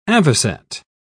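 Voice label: "avoset", British English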